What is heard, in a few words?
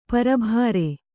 "ಪೆರ್ಬರಿ", Kannada